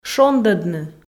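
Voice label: "шондӧдны", Komi, female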